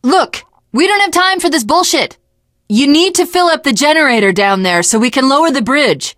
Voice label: finale chat, teengirl, voice; Left 4 Dead 2

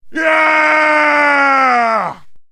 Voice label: man victory; Mount&Blade Warband

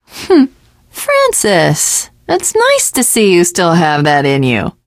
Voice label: teengirl, voice; Left 4 Dead 2; l4d1 finale

